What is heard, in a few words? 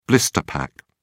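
"blister pack", British English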